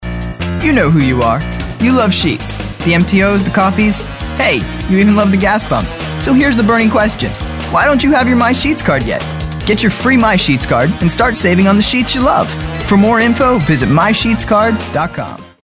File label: attitude